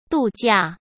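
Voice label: "度假", Mandarin Chinese; hsk; chinese-flashcards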